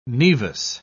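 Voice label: "nevus", British English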